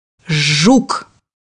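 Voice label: "beetle", Russian; bukvar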